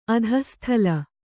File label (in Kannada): "ಅಧಃಸ್ಥಳ", Kannada